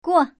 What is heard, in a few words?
pass, girl; paoyao